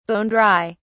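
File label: "bone dry", British English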